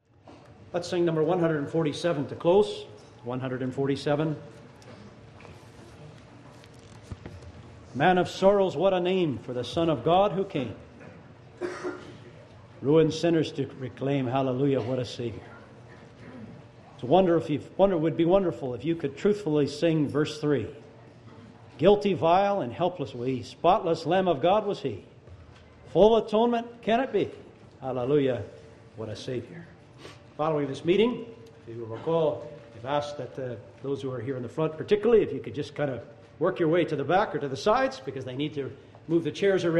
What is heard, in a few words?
Announce Hymn; 2015Conference; Hymn 147